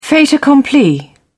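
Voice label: "fait accompli", British English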